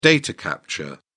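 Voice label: "data capture", English, British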